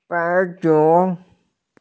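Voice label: "baiyun", Mandarin Chinese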